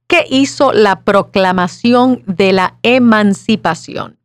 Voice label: Spanish